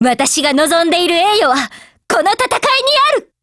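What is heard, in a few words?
GO ATTACK, Japanese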